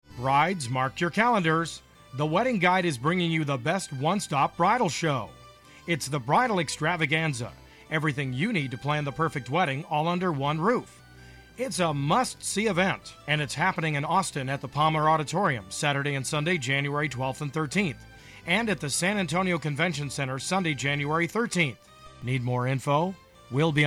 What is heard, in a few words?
English, males